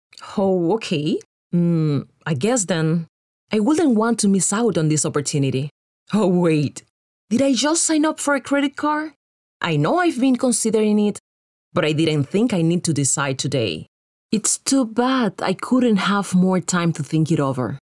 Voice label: female spanish latam english with latam accent e-learning